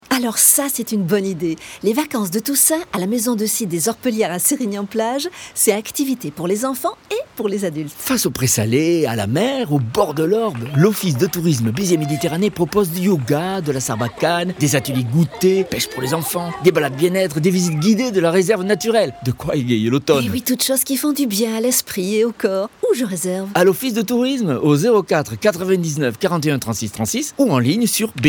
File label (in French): spot; beziers orpellieres